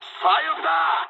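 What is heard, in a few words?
left wing mood high, speech japanese; War Thunder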